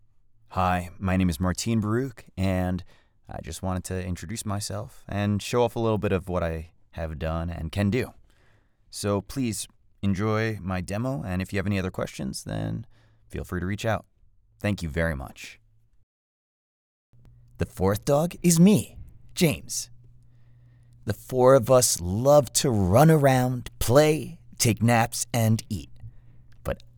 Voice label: Narration